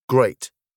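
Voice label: "great", British English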